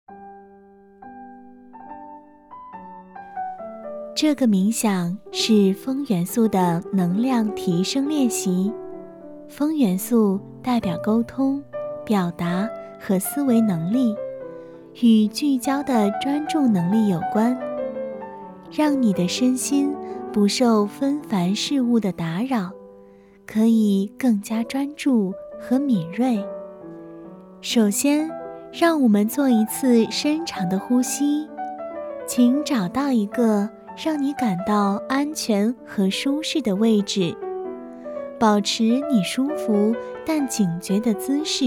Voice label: 冥想舒缓

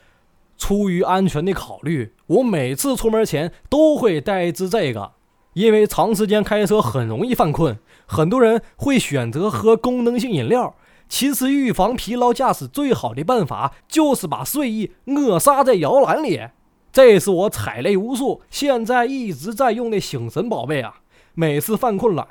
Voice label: 东北大碴子方言

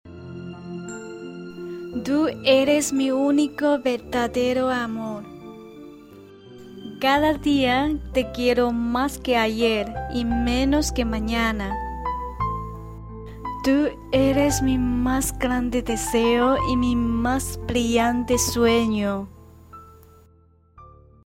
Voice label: 西班牙语美句朗读

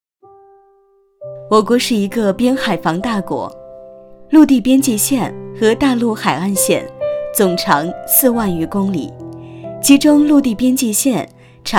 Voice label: 专题娓娓道来讲述; 边海防大国

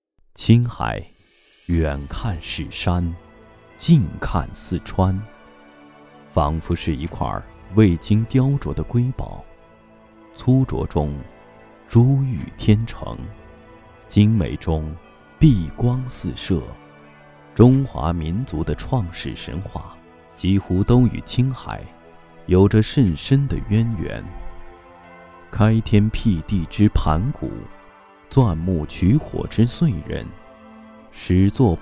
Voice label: 样音 宣传片; 青海

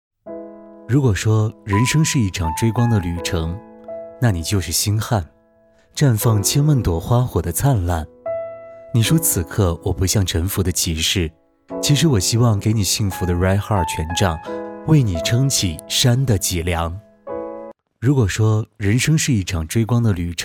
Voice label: 解说风格

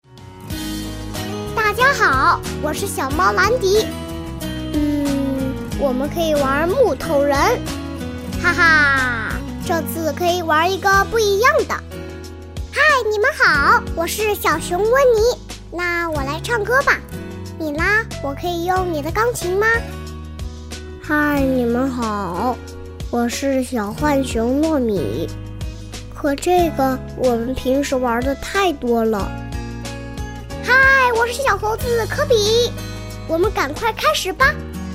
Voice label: 多角色

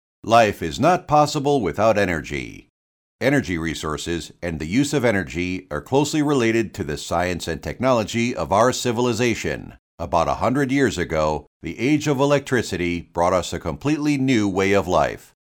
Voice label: 外籍英男电器公司宣传片

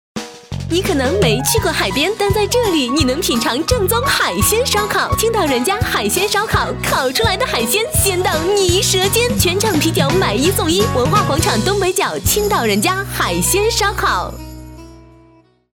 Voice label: 品牌广告

